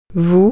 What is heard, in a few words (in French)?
"you", French